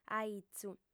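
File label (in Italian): "aizu", sarda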